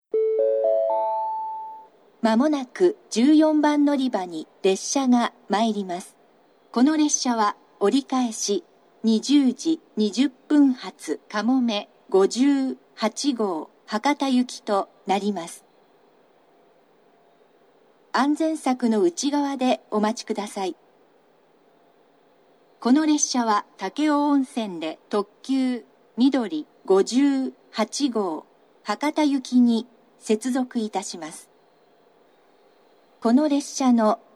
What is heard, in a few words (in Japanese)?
長崎 西九州新幹線ホーム 自動放送, 14番のりば接近予告放送; かもめ58号 博多行き）※武雄温泉でみどり58号に接続、停車駅が二日市まで